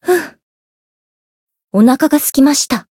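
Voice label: Voice win, Japanese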